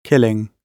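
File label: "killing", British English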